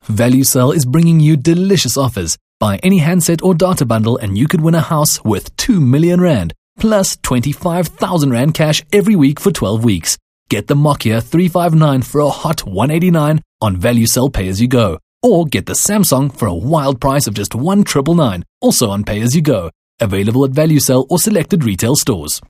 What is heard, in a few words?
English Hard Sell